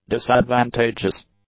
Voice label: "disadvantageous", English